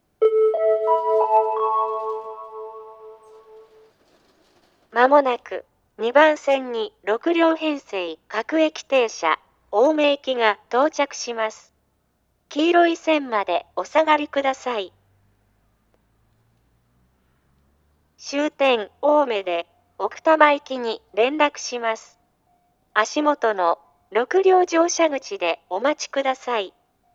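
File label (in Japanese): 接近放送, 旭型放送(女声), 青梅線, 青梅駅で奥多摩方面に接続する列車では、その旨を案内していました。; 6両青梅行 奥多摩行連絡; 牛浜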